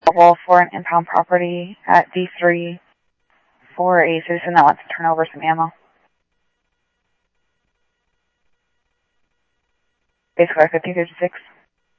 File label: Scottsdale Police, scanner